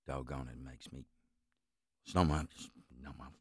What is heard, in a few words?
mutter; The Sum of All Fears